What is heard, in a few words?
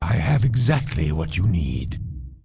Undead Male Warrior Vendor; NPC